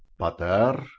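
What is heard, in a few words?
"ph2ter", Proto-Indo-European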